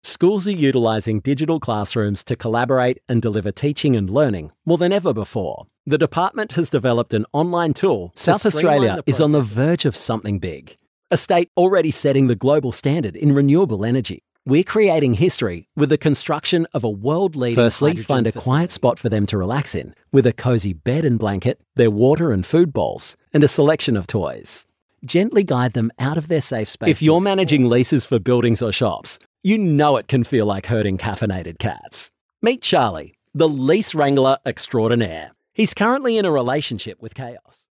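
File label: natural, voice-overs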